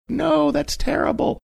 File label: funny; no thats terrible